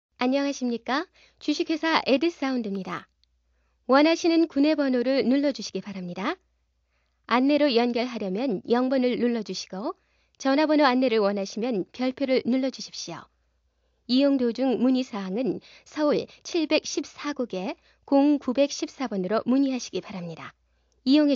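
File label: Korean female; ARS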